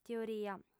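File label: "teoria", lingua sarda, female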